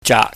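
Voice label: "jaak", Cantonese, mid level tone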